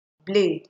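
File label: "blade", Nigerian Pidgin, female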